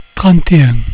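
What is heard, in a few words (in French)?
"trente un", French; cat numeration doigts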